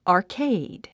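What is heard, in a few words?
"arcade", English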